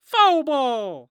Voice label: Sports Human Voice Male Young Man Baseball Umpire Foul Ball